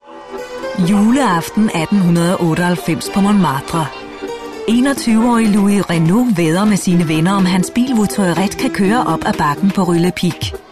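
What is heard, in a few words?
Danish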